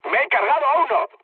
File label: player killed enemy, mood med, speech; War Thunder